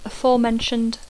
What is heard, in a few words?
"aforementioned", English